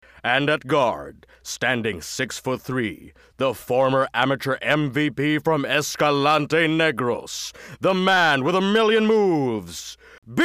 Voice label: English, Announcer, Sportscaster

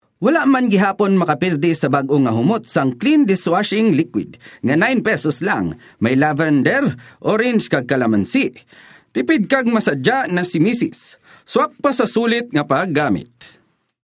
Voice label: Hiligaynon (Energetic, Selling, Visaya)

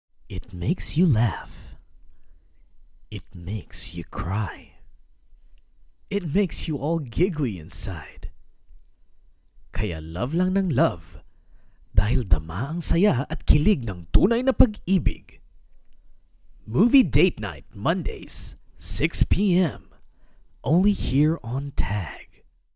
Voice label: Taglish (Tender)

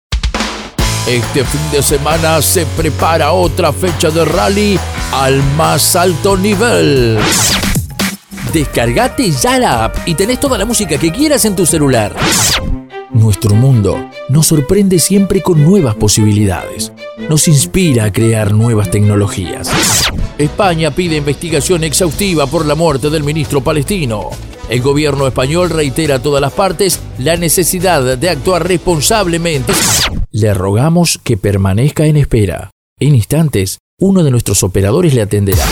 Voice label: spanish

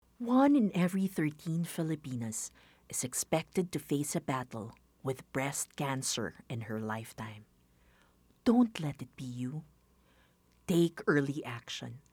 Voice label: English (Concerned, Tender, Sensitive)

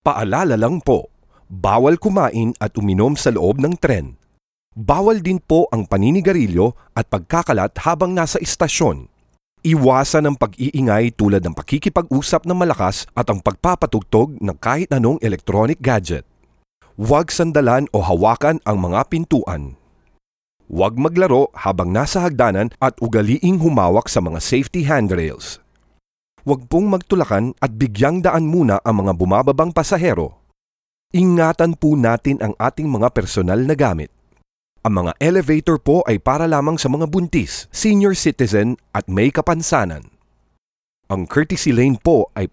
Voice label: Home Recording Sample (Tagalog)

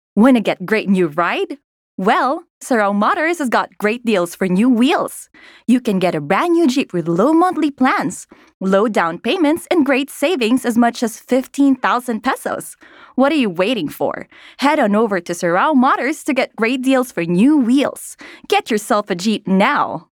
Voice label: English, Casual, Fun

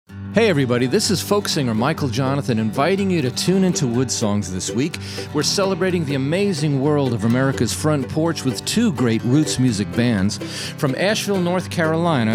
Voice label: thirty second promo

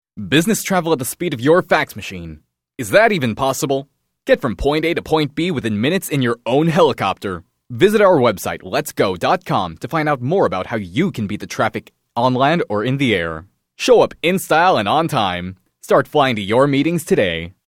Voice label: English (Selling, Confident)

